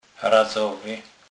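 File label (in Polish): "razowy", Kurpie dialect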